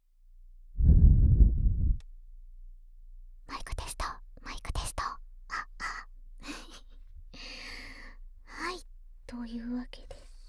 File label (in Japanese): 制作の裏側お喋り耳かき; 夜空の箱舟